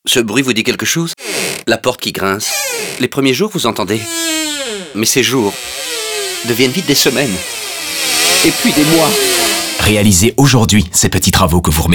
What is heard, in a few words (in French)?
Radio Porte, FR; So-Simply